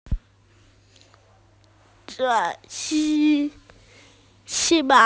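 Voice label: "zhi3", Mandarin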